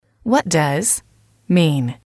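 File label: what does X mean, English, female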